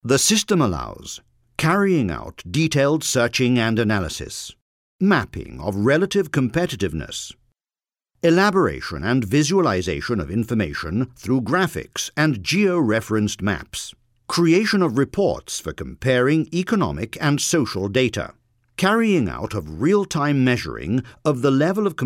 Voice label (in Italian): inglese uk